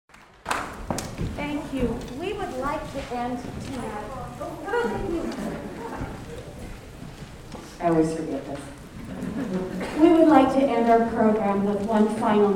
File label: Narration